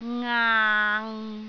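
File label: "ngaang6", Cantonese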